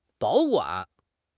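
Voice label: "保管", Mandarin Chinese; HSK; HSK6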